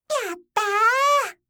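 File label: "yattaa", Japanese, yorokobu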